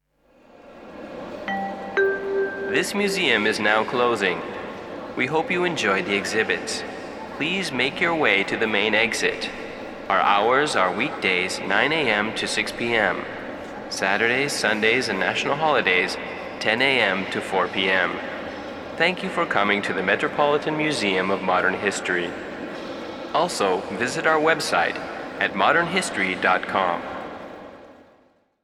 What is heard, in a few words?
Museum Announcement